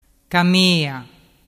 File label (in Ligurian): "camea", dialettu